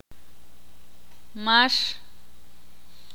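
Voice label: "izquierda" Spanish, Ixil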